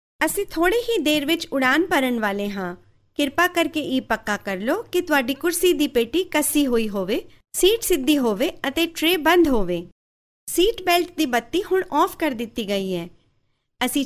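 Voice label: Punjabi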